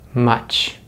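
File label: "much", American English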